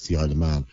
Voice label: "to the Isle of Man", Irish